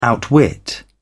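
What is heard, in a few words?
"outwit", English, British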